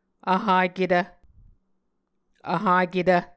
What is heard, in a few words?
"vhaketv", muscogee